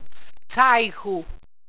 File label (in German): "zaichu", walser